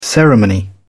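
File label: "ceremony", British English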